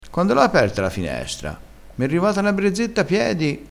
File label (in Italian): "brezzetta", crespinese